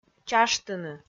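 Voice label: "чаштыны", Komi, female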